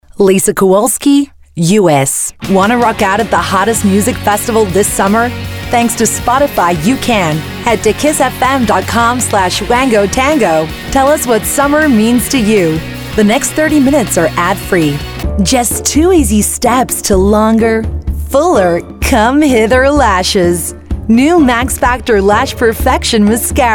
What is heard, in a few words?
American